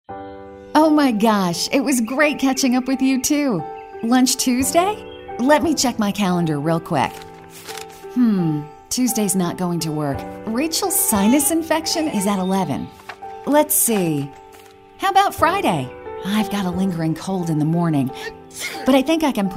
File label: mothers real people midlife